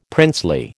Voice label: "princely", American

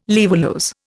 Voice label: "laevulose", Indian

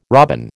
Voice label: "robin", American

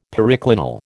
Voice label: "periclinal", American

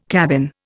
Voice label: "cabin", Indian

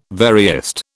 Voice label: "veriest", British

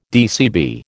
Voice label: "DCB", American

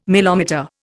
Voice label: "milometer", Indian

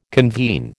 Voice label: "convene", American

